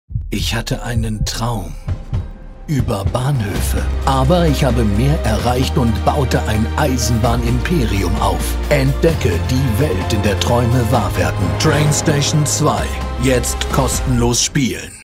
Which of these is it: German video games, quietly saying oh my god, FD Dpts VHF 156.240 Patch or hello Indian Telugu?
German video games